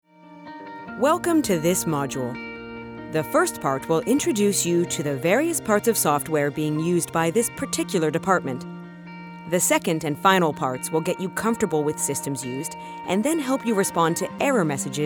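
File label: corporate reel, standard American accent; voiceover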